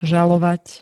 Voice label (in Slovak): "žalovať", Slovenské